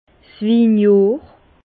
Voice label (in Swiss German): "winjohr", Bas Rhin; vin; année de bon vin